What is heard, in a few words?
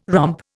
"romp", Indian